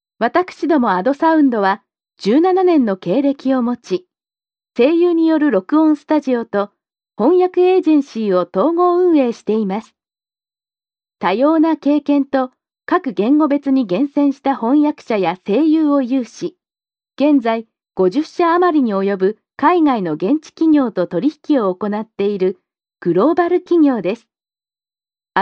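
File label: Japanese, female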